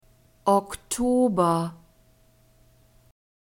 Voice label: "Oktober", German; masculine